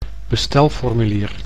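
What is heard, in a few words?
"bestelformulier", Dutch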